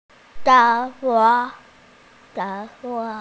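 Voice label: "work", Mandarin Chinese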